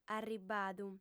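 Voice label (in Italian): "arribadu", sarda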